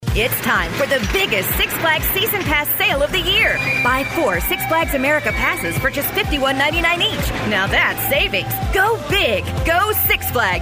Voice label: upbeat fresh believable, voice; six flags america